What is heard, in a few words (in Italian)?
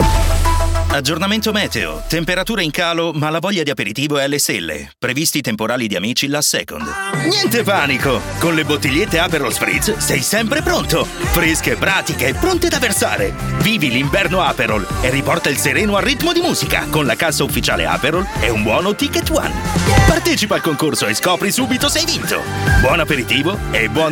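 Italian, Commercial; GoLocalise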